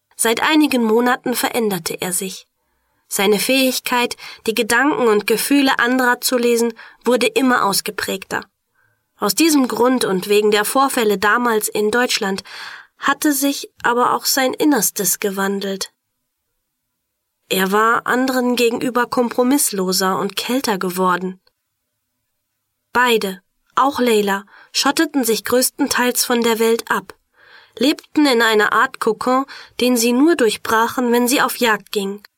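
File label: german female narration; voice demos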